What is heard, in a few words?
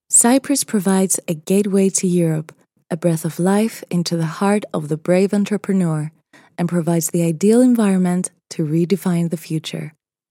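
Greek Cypriot, English accented; GoLocalise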